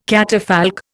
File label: "catafalque", Indian